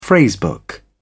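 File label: "phrase book", British English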